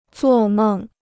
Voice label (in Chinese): "做梦", Chinese